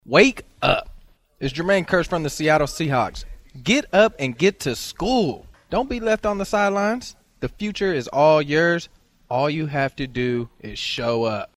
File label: robocall; Seahawks; Jermaine Kearse; calling out absent students